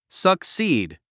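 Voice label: "succeed", English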